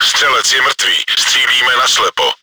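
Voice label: gunner killed, чешской озвучки; Наводчик убит, стреляем вслепую.; Убийство наводчика; Czech; World of Tanks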